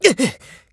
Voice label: nekketsu damage, voice

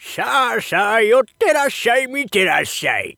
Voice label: shop oldman, voice

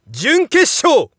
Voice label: Narration semifinal; Shiai

